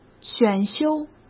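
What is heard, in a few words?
"选修", Mandarin Chinese; hsk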